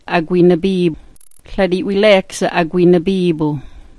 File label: "agwinabiip", smalgyax